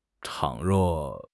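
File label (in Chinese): "倘若", Chinese; HSK6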